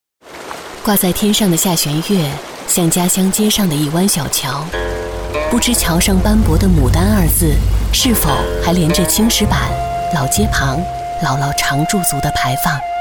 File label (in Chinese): 男 公益广告 霜降